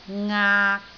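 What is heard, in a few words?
"ngaak6", Cantonese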